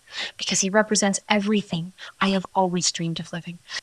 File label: Voice Acting, es, F0